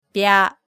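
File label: "bya", Japanese syllable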